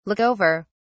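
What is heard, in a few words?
"look over", British English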